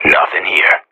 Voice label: radio bot clear; Counter Strike Model Pack; CS:GO

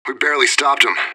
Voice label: radio bot end close; fbihrt

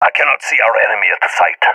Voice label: bombsite clear, balkan; balkan epic

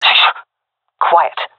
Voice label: request quiet, vo fem; agents; Source Model Packs; Counter Strike Model Pack; CS2

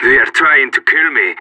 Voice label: radio taking fire; Counter Strike; CS:GO; separatist

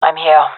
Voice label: in position, gendarmerie fem; vo

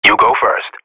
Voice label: radio bot follow you, vo professional; Counter Strike Model Pack; CS:GO

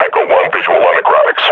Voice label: announce enemy zombie, combine grunt; Half Life Model Pack

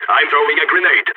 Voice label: grenade, balkan; vo

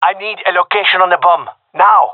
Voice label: query bomb, gendarmerie male; vo

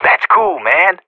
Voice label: radio bot cheer; Counter Strike Model Pack; CS:GO

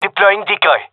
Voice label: throwing decoy, gendermerie male

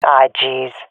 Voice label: scared, swat fem; Counter Strike Model Pack; CS:GO

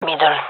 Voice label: loc mid, fem; vo

